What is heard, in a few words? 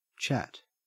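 "chat", Australian English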